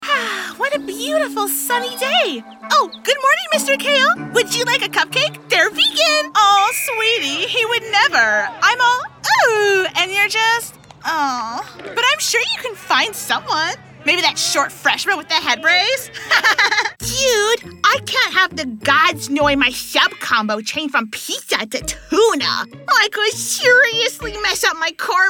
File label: animation